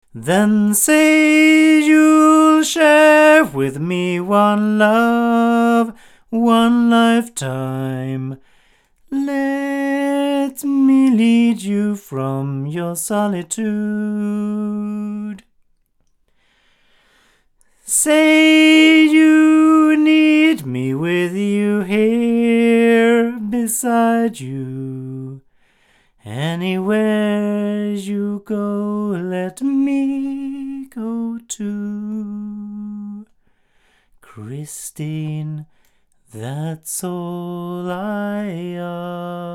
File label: Generis GT2 Mk2 omni male